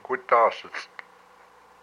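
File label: "my lips", Hupa, male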